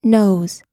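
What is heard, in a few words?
"knows", American English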